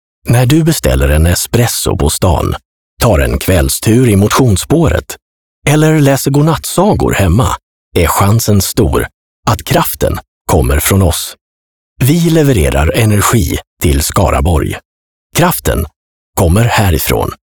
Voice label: Commercial Calm Mode